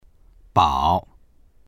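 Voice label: "bao3", Mandarin Chinese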